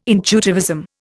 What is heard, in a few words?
"intuitivism", Indian